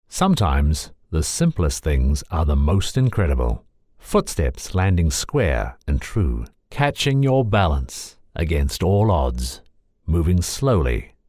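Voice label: Australian English, Narrative; GoLocalise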